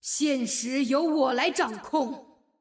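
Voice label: Simplified Chinese; 秩序之光; 守望先锋; 终极技能; 主动/敌方释放语音; 现实由我来掌控！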